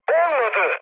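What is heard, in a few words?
Turkish bomb failure, mood med, speech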